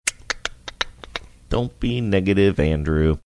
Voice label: making tongue clicking sounds saying dont be negative sing songy